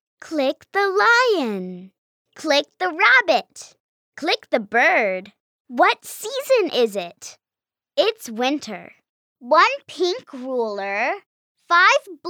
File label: E Learning